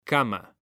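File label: "cama", Spanish, male; mekanta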